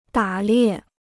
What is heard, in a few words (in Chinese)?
"打猎", Chinese